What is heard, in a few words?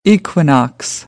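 "equinox", American English; AmericanBritish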